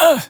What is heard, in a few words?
Pain, Battle Barks; Warframe; BaroDefense